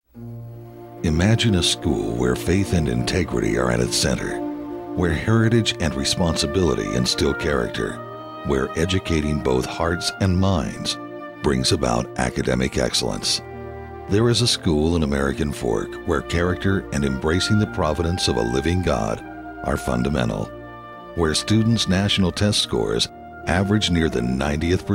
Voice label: Radio Spot; KSL